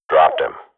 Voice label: radio bot kill, swat; Counter Strike Model Pack; CS:GO

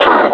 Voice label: callout code two, vo combine suppressor; Half Life Alyx

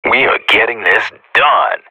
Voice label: radio bot cheer; Counter Strike Model Pack; CS:GO

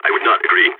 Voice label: disagree, balkan; vo

